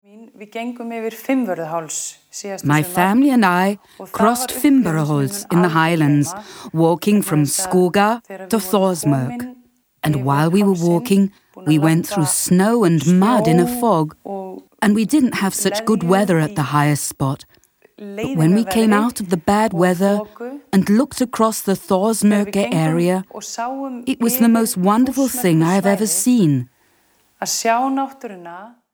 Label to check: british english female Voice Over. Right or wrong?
Right